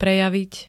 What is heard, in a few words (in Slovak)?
"prejaviť", Slovenské